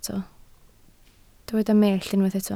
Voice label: "mellt", Welsh